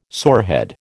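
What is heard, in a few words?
"sorehead", American English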